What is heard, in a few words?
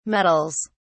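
"meddles", English, British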